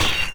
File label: heavy hurt, pain; player; Dystopia